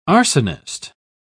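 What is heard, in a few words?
"arsonist", British English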